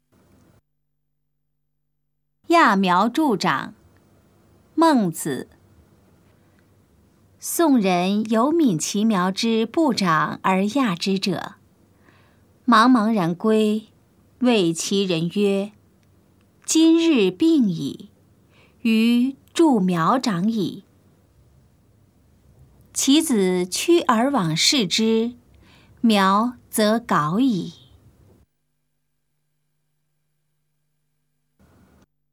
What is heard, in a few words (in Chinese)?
putonghua; 揠苗助長; 普通話